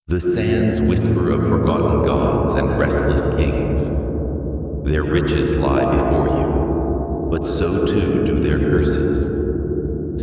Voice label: narration egypt; kz realmshift